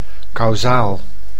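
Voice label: "causaal", Dutch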